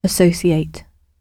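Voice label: "associate" British English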